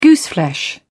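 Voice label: "gooseflesh", British English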